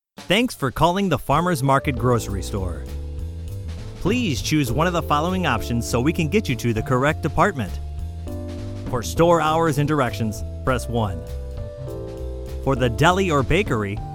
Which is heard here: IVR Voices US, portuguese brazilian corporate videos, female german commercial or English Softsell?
IVR Voices US